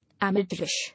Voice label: "Amateurish", English

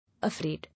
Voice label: "Afraid", English

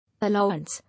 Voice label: "Allowance", English

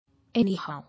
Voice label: "Anyhow", English

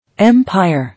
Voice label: "Empire", English

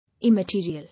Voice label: "Immaterial", English

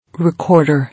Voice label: "Recorder", English

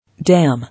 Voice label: "Damn", English

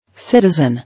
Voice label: "Citizen", English

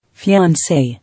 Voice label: "Fiance", English

